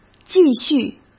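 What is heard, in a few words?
"继续", Mandarin Chinese; hsk; chinese flashcards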